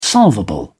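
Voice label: "solvable", English, British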